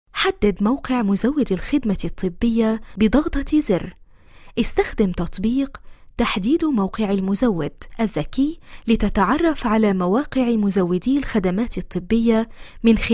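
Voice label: Arabic, female, corporate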